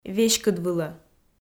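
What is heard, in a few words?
"веськыдвылӧ", Komi, female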